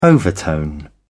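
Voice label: "overtone", English, British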